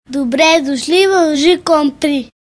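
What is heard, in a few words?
welcome, Brazilian Portuguese; gcompris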